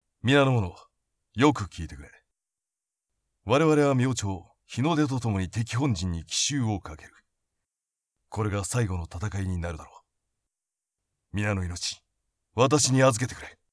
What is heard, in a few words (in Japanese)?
武将